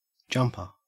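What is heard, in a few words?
"jumper", Australian English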